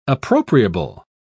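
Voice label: "appropriable", British English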